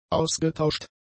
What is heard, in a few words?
"ausgetauscht", German; adjectives